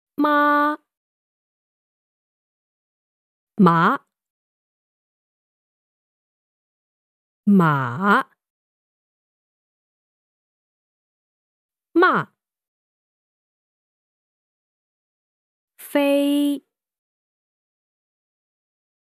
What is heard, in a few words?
Tones, Chinese